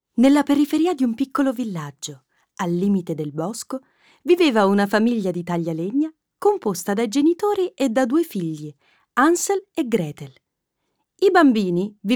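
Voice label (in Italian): Fiaba, voice-over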